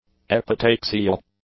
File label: "epitaxial", English; adjectives